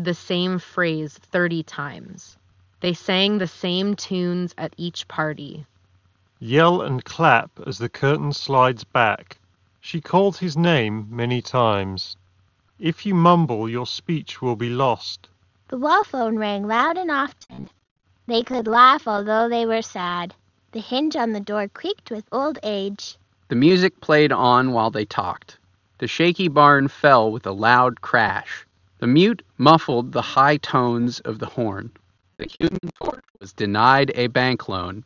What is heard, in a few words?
Marshall Minor III Ideal microphone sample